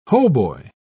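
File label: "hautbois", English, uk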